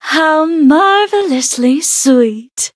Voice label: levelup, en; cookie run